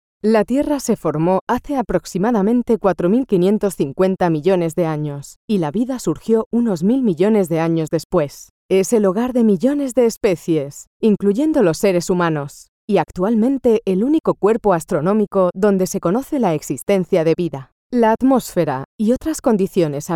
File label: spanish documentaries